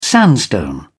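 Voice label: "sandstone", British English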